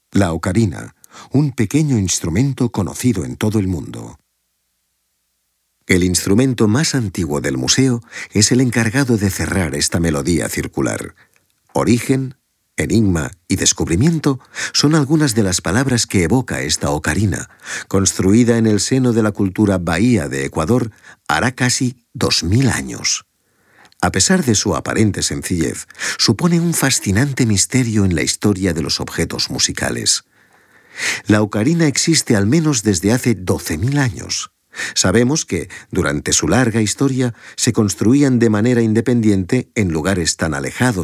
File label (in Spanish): audioguia; delamusica espanyol